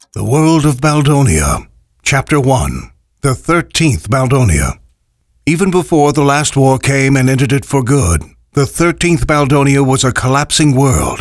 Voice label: Audio Book